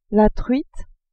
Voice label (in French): "truite", french